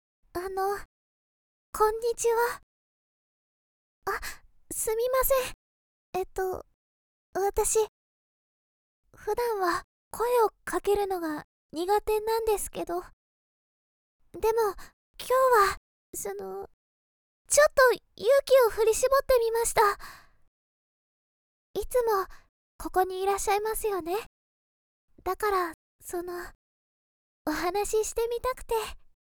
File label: female; シャイな女の子